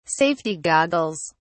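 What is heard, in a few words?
"safety goggles", British English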